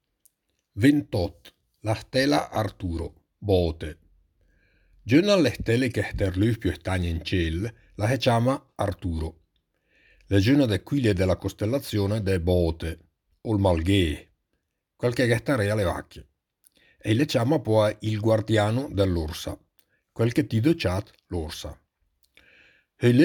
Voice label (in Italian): dialetto lumezzanese